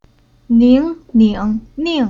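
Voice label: "Ning", Chinese syllable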